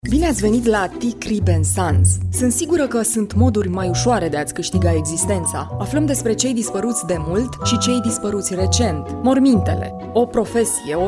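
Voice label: Romanian commercial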